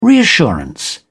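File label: "reassurance", British English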